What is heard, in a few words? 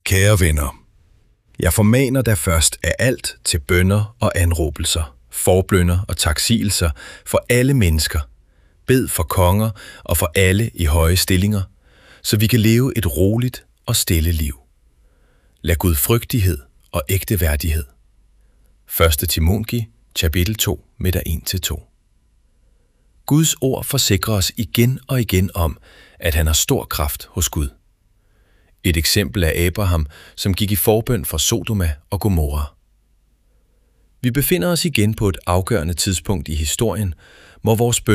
Voiceover; Kaere venner Jeg formaner da foerst af alt til boenner og anraabelser forboenner og t; brev fra praesidenten